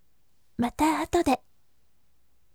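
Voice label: "mata atode", gentle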